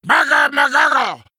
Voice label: Male Murloc Play